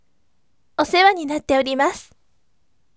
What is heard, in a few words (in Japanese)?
voice osewani natteorimasu yasashime